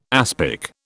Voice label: "aspic", British